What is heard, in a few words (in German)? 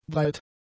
"breit", German; adjectives